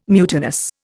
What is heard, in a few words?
"mutinous", Indian